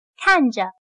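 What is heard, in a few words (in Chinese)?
"看着", Chinese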